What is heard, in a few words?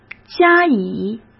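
"加以" Mandarin Chinese; hsk; chinese-flashcards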